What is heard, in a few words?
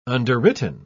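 "underwritten", British English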